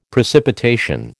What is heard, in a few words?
"precipitation", American